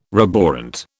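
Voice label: "roborant", British English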